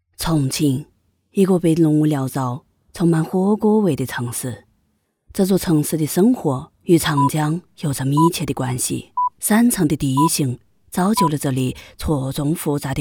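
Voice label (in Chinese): 重庆 女 重庆方言样音女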